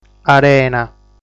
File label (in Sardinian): "Arena", sardu